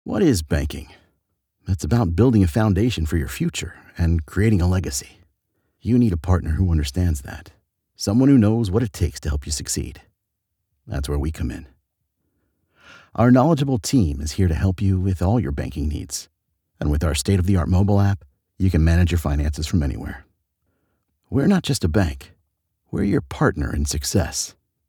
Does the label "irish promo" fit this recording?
No